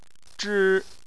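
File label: "zhi" Chinese, first tone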